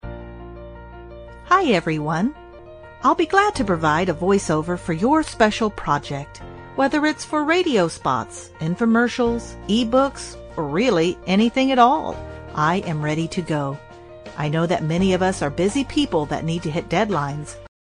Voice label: female, english